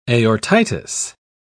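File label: "aortitis", British English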